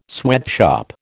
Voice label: "sweatshop", American